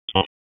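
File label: Police Dispatch; wake; p25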